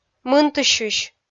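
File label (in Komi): "мынтысьысь", Komi, female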